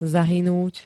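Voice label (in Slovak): "zahynúť", Slovak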